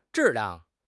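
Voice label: "zhi4 liang4", Mandarin Chinese; HSK4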